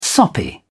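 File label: "soppy", British English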